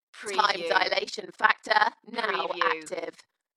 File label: UK female, Dalekness; Time dilation factor now active; beanstalk audio